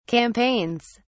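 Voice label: "campaigns", British English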